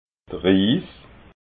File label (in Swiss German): "riis", alsace